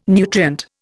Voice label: "nutrient", Indian

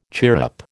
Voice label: "chirrup", American

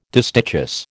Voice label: "distichous", American

